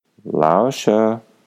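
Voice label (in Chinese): "laoshi", Mandarin Chinese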